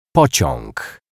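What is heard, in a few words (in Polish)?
"pociag", Polish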